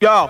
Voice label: "yo", Voice